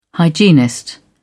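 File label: "hygienist", British English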